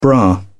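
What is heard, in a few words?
"bra", English, British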